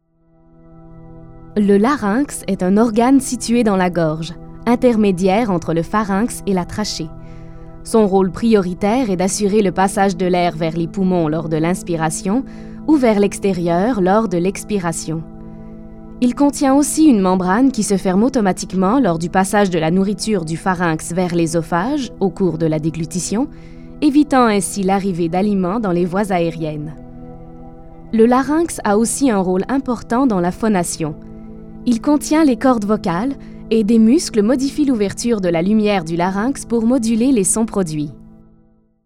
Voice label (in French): narration